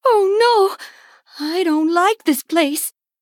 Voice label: Female Human Play